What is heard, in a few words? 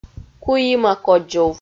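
"куима кодзув", Komi, female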